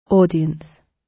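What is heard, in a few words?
"audience", British English; The arts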